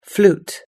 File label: "flute", US English